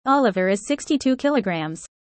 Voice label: user fast